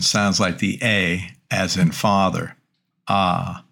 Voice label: Greek Vowels α Long; greek grammar